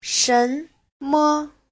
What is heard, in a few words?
"shen2me5", Chinese